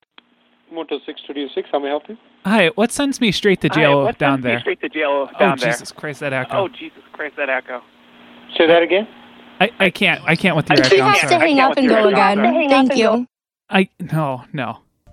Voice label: Bad Echo Hangup